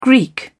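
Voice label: "greek", British English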